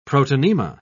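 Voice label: "protonema", British English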